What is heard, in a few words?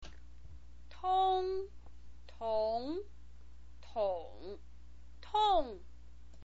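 "tong", Mandarin Chinese pinyin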